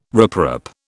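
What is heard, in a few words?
"riprap", British English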